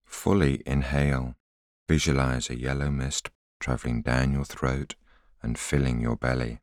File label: voiceover